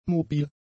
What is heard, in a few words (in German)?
"mobil", German; adjectives